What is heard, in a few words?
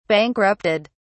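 "bankrupted", British English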